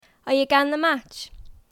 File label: Geordie, accents of english